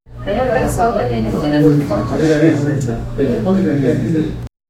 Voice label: family chatter, dining